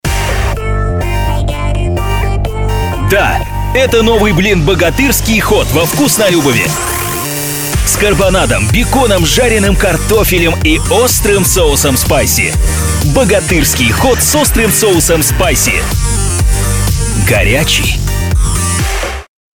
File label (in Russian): молодежная подача